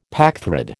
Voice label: "packthread", American

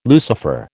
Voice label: "Lucifer", American

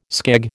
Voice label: "skeg", American

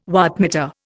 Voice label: "wattmeter", Indian

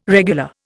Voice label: "regular", Indian

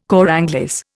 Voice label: "cor anglais", Indian

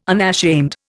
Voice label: "unashamed", Indian

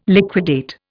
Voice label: "liquidate", Indian

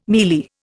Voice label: "mielie", Indian

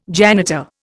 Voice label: "janitor", Indian

